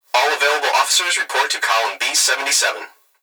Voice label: Emergency Human Young Male Voice Plant Security Dispatch All Available Officers Report To